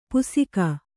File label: "ಪುಸಿಕ", Kannada